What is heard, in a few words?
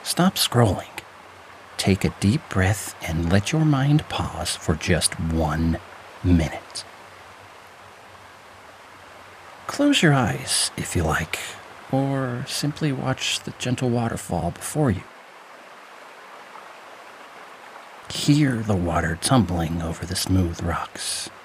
Meditation, Voice Actor; 60 Second Meditation